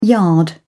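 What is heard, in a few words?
"yard", British English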